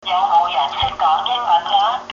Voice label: does anyone speak english, cantonese